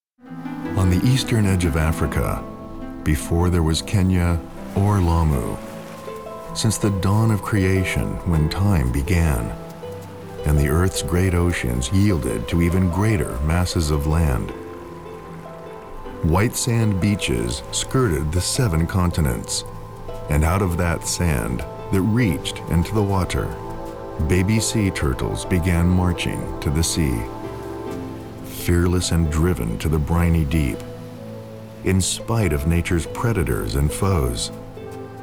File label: NARRATION